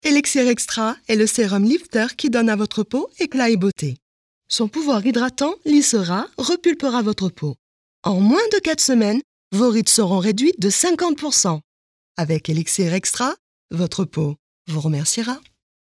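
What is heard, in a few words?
French, female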